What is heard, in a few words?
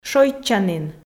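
"шойччанін", Komi, female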